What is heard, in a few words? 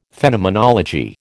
"Phenomenology", American